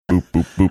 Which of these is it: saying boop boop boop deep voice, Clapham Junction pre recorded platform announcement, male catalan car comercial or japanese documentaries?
saying boop boop boop deep voice